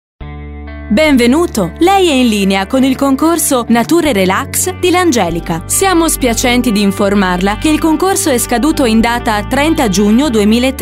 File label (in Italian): segreterie